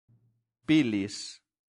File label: "pilis", latin; santillana